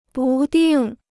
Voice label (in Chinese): "不定", Chinese